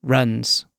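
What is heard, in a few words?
"runs", British English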